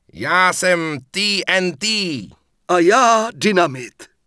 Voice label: Mortar Team Pissed; wowpreklad; czwow